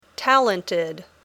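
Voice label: "talented", English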